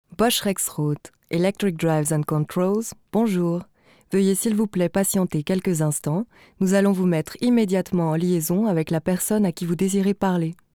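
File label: fr elearning, French; sprecher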